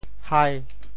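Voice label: "hai5", Teochew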